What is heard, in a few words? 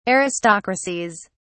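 "aristocracies", British English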